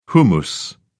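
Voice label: "hummus", English, British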